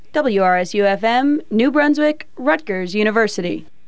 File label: legal ID female